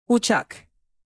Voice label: "uçak", Turkish, native